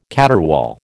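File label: "caterwaul", American English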